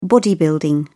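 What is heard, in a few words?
"bodybuilding", British English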